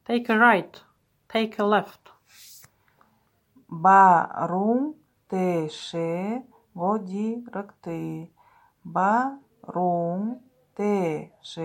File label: Take a right Take a left, Buryat